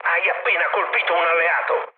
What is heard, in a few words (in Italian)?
player killed ally, mood low, speech it; War Thunder